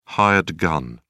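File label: "hired gun", British English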